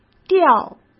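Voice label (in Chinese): "吊", Mandarin Chinese; hsk; chinese flashcards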